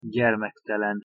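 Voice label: "gyermektelen", Hungarian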